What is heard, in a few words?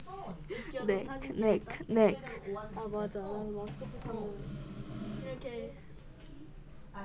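"neck", spoken word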